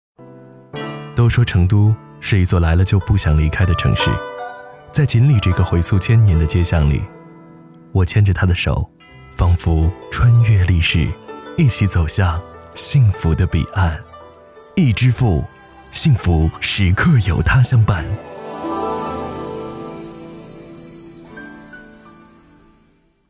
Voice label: 男国 广告 古风 翼支付

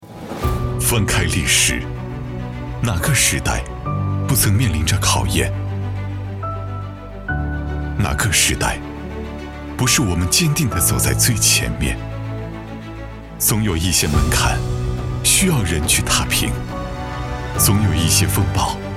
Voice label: 男 形象宣传; 走心企业形象片样音; 三清互联

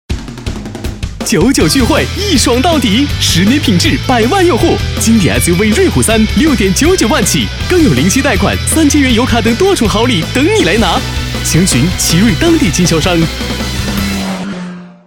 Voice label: 男 大音 广告; 奇瑞瑞虎3

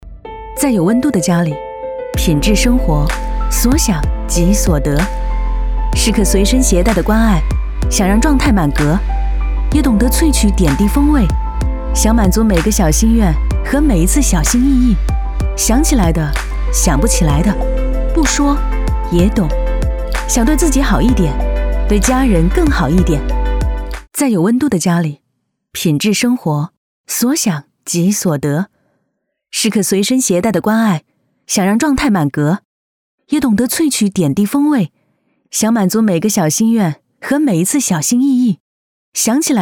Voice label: 女 家电 净水器; 康丽根净水器

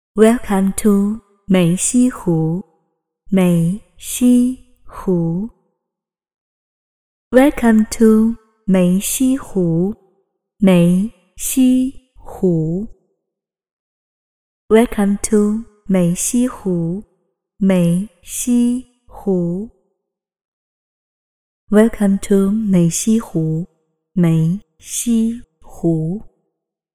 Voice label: 女 场景广播 机场播报; 女声广播提示

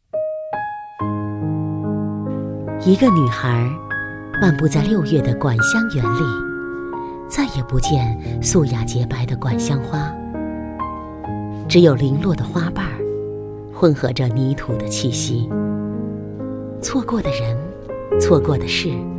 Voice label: 女国 抒情旁白

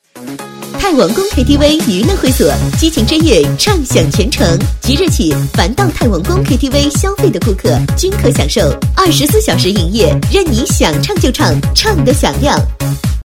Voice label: 女国 广告 KTV娱乐会所

